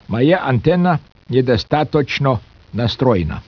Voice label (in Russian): SPEECH, RUSSIAN